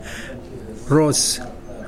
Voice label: flower, Mokilese

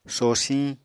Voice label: "sosiñ", Apatani

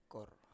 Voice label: "kor" bones, Biak

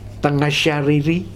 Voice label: "Tagajariri", futuna aniwa

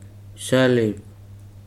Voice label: "many", Weri

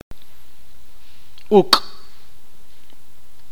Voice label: "piojo", itza

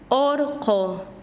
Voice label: "montana", Quechua Chanka

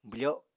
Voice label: "bwfo7", Apatani

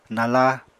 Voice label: "nala", Apatani; Material Culture

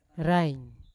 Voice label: "rain" to see, Yawa

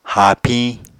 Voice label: "haapiñ", Apatani

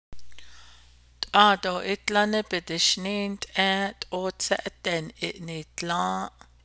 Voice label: navajo; I told him not to drink but he started to drink

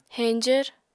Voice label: "heñjvr", apatani

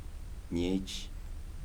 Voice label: "months", Kibembe

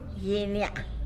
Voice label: "gyiny ya'a", tlacolula; green chile

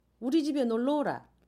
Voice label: "we", Jeju; we ex

